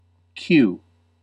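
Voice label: "cue", US English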